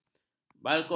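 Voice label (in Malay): "balkoni", Malay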